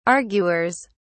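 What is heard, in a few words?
"arguers", British English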